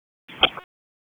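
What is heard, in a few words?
Police Northeast District Dispatch, p25; wake p25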